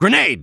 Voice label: grenade, voice English